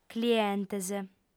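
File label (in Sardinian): "clientes", female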